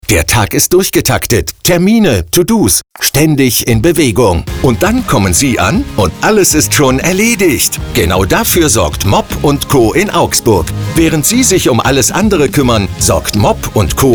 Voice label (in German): Beispielspot Reinigungsdienst